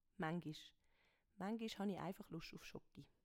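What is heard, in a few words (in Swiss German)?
"maengisch", Swiss German; Wortschatz Kapitel 1; Kapitel 1 Hauptwortschatz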